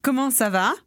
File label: conversation